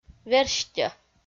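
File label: "верстьӧ", Komi-Zyrian, female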